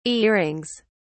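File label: "earrings", British English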